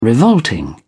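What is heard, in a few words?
"revolting", British English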